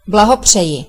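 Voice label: congratulation, Scottish Gaelic; gcompris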